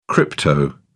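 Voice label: "crypto", British English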